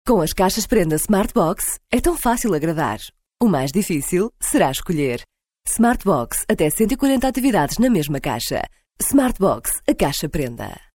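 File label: portuguese female commercial; voice demos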